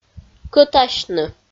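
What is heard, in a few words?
"кӧтасьны", Komi, female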